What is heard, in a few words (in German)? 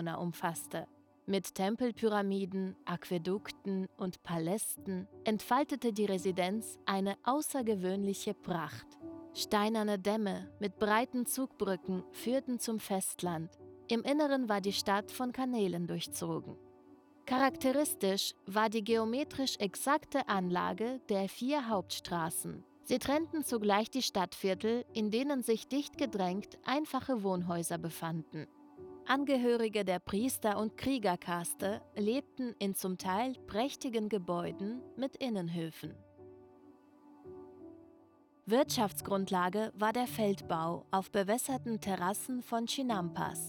Dokumentation Tenochtitlan